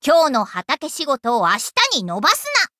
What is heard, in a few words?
levelup ja; cookie run